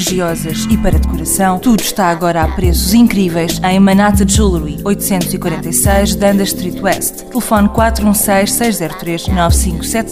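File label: Port, Ethnic Voice over Talent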